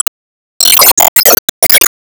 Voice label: Emo Surprise